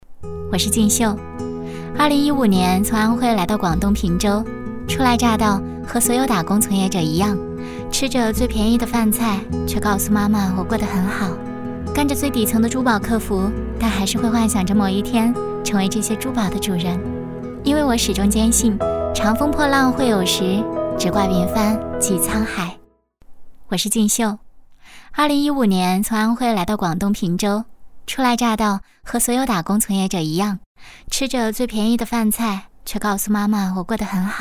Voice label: 女 独白 vlog; 做珠宝是一种怎样的体验

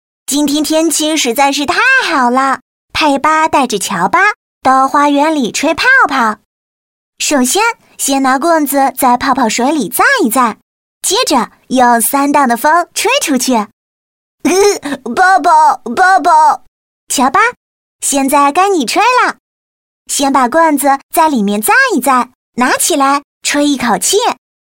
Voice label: 女 童声模仿

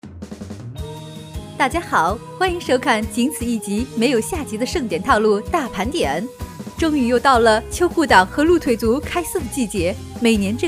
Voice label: 女-活力-年轻-飞扬; 飞碟说

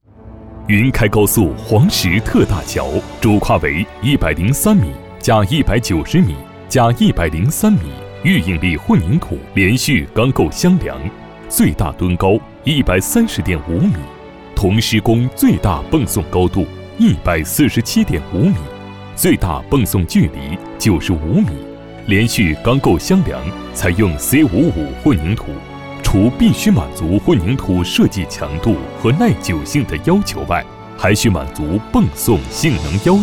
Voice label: 男 专题 工程汇报

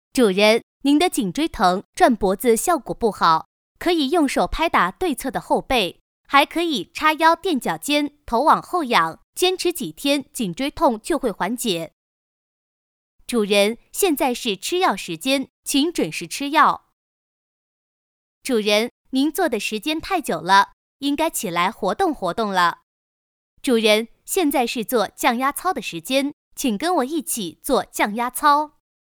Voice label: 女 语音系统 手表电子音 机器人

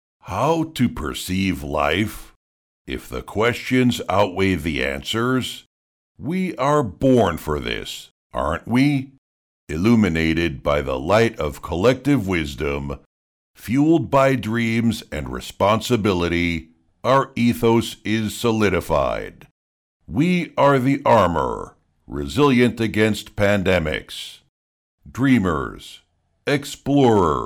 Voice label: 低沉磁性

